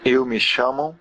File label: my name is, portuguese br